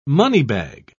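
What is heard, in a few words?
"moneybag", English, British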